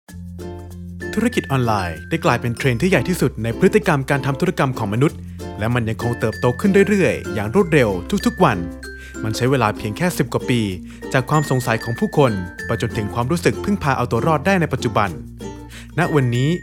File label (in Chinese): 泰语男, 外语; 小语种; 飞碟说